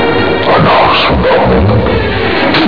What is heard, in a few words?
mum talk